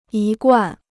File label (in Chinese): "一贯", Chinese